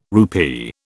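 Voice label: "rupee", British English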